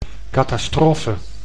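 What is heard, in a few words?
"catastrofe", Dutch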